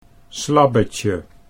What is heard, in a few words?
"slabbetje", Dutch